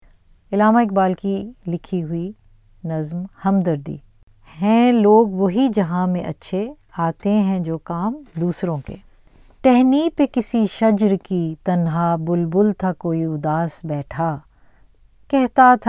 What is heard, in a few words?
Urdu Poem